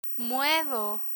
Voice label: "muevo" Spanish; irregular spanish verbs; learn spanish